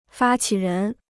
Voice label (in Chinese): "发起人", Chinese